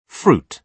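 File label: "fruit", British English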